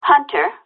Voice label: hunter scanner; GTAV

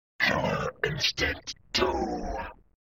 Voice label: Early Game Title Announce

